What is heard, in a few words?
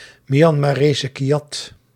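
"Myanmarese kyat", Dutch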